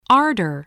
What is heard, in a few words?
"ardor", English